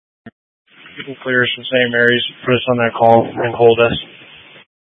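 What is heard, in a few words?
Fire Dispatch, scanner audio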